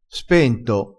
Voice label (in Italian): "spento", crespinese